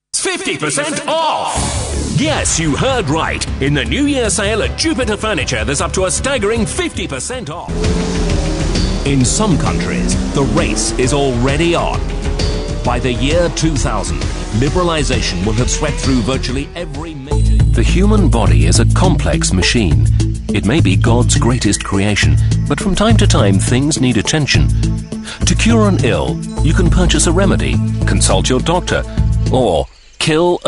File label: COMMERCIALS